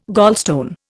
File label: "gallstone", Indian